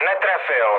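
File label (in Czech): Czech bomb failure, mood low; War Thunder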